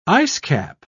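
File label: "icecap", British English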